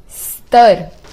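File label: level, Basque; gcompris-data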